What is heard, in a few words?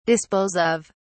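"dispose of", British English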